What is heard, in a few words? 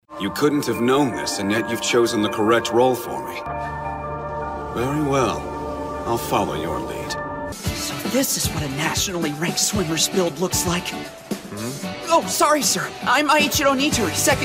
Character